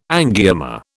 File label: "angioma", British